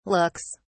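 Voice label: "looks", British English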